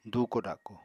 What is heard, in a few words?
"dùuko-dako", Apatani